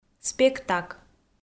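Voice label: "спектак", Komi-Zyrian, female; audio dict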